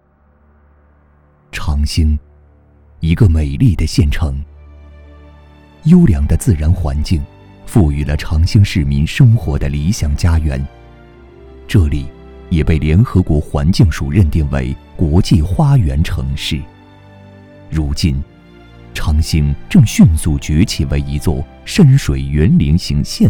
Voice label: 男 温暖宣传; 长兴金耀

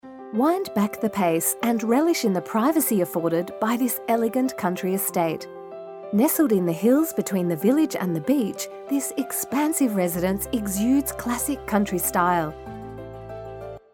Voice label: 外籍英女, 风景区宣传

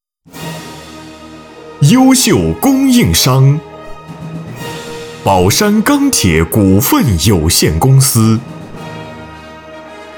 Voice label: 男 颁奖 大气力度; 优秀供应商

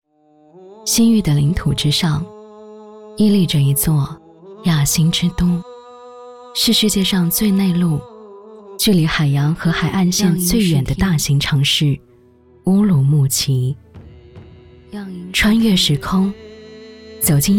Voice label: 女 叙述 沉稳 招商片; 西域的领土之上

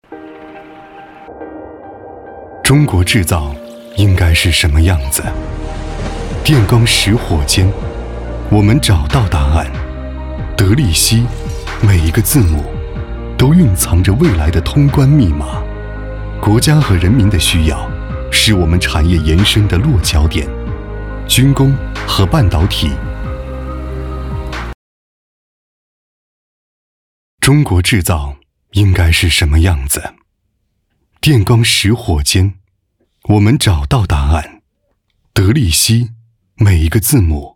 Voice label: 男 宣传片 品质稳重; 德力西

